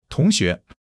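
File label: "tong2 xue2", Chinese; HSK1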